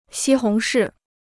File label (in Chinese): "西红柿", Chinese